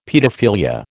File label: "paedophilia", American